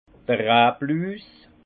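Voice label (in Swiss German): "rablus", alsace Haut-Rhin; phylloxera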